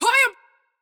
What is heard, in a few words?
Dragon Lady Attack Vo